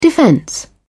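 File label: "defense", British English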